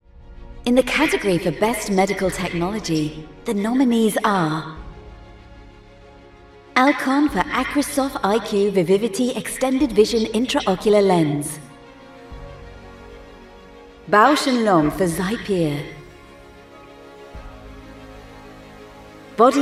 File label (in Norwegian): Innfødt voice Engelsk (UK); Innspillingstudio for kommenta; Sprecherdatei; Kommentatorer; Demo 1